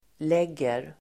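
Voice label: "lägger", Swedish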